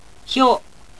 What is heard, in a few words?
"hyo", Japanese